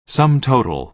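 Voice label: "sum total", American English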